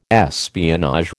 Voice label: "espionage", American